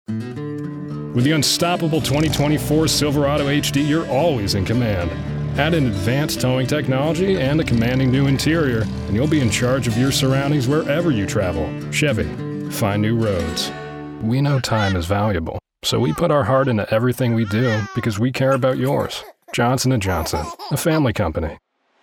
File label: Commercial